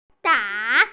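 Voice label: "da3", Mandarin Chinese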